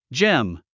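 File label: "gem", English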